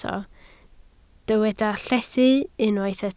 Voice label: "llethu", Welsh

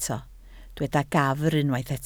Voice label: "gafr", Welsh

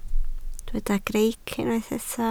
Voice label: "greic", Welsh

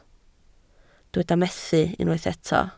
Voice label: "methu", Welsh

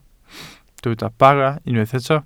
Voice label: "bara", Welsh

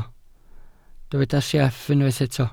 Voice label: "sieff", Welsh

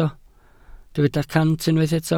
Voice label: "cant", Welsh

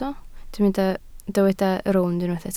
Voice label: "rownd", Welsh

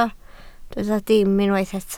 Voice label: "dim", Welsh

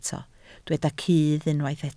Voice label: "cudd", Welsh

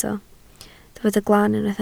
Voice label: "glan", Welsh

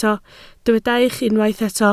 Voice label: "eich", Welsh

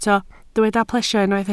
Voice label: "plesio", Welsh

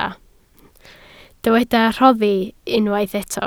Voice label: "rhoddi", Welsh

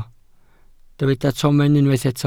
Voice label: "tomen", Welsh

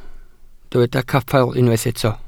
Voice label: "capel", Welsh

